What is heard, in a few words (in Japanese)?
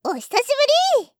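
"お久しぶり～" Japanese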